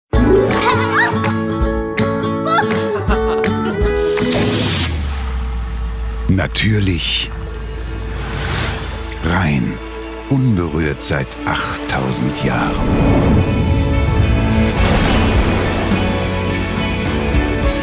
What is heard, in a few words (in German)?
Freundlich